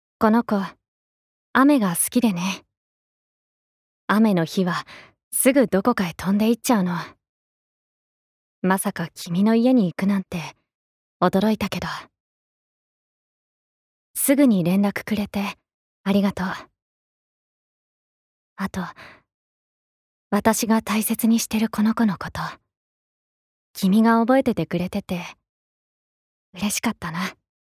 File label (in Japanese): アニメ